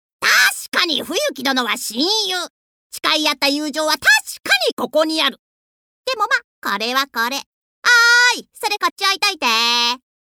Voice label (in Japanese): 少年キャラ